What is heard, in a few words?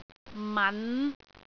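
"man5", Cantonese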